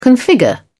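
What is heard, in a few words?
"configure", British English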